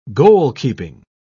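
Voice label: "goalkeeping", British English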